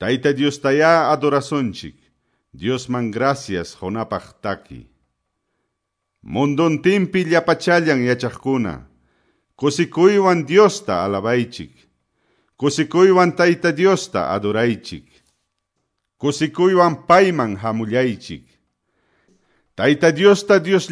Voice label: salmos, quechua ayacucho